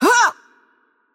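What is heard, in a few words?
Attack Heavy, Brazilian Portuguese; VO; bloom minigame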